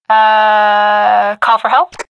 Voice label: low